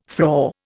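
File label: "frore", Indian English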